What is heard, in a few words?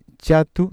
"chatu", Cusco Quechua